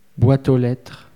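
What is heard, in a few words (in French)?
"boîte aux lettres" French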